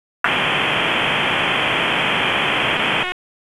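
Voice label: Fire Department HQ Dispatch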